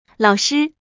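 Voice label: "laoshi", Mandarin Chinese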